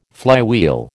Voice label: "flywheel", American